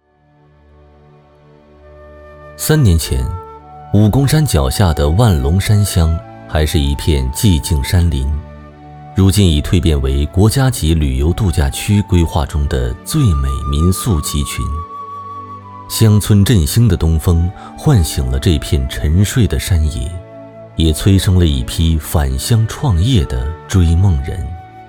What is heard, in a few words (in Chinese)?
男 人物解说